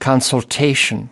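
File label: "consultation", US English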